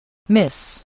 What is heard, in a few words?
"miss", voice, spelling